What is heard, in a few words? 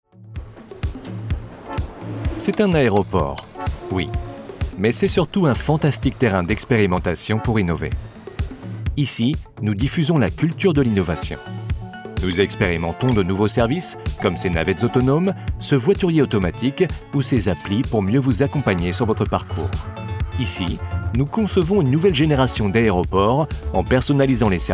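corporate, voice over; French; adp innovation hub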